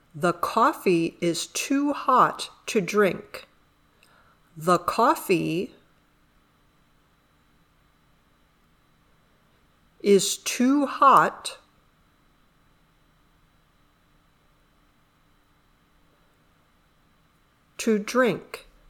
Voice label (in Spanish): Dictado; Clothes (La Ropa)